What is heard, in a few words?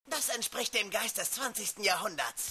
German